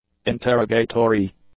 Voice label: "interrogatory", english; adjectives